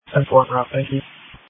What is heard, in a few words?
Police Dispatch